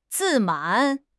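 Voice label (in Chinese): "自满", Chinese; HSK6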